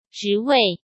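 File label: "职位", Mandarin Chinese; hsk; chinese-flashcards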